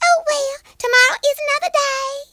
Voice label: perfect, Southern Belle; speech